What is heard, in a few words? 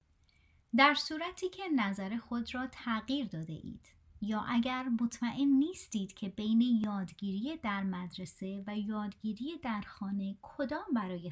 Farsi